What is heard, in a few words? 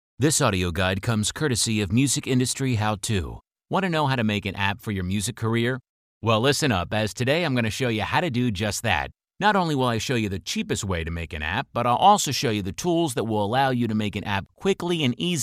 narr, male voice talent